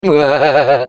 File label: Defective Turret defect laugh, fr; sp sabotage factory